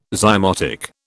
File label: "zymotic", British